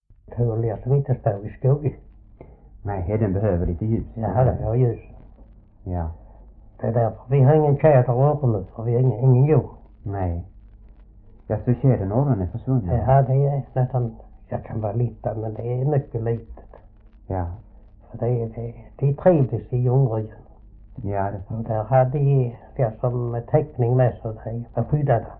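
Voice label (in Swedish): Oderljunga Skåne, dialekter; Folkminnen; Svenska sagor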